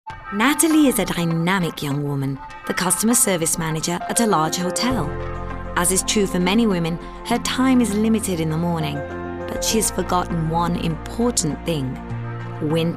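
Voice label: narrative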